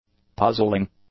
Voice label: "puzzling", english; adjectives